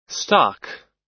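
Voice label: "stock", US English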